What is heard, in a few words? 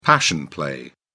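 "passion play", British English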